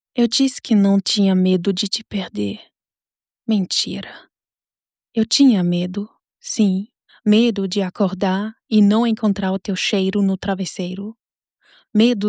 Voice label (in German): portugiesisch